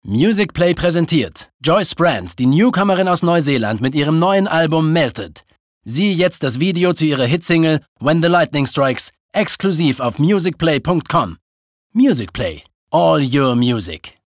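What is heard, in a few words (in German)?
advert, Cool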